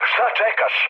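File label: Serbian attack position mood low, speech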